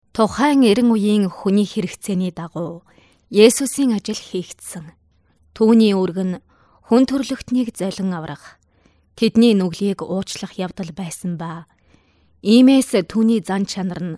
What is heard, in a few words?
Dubbing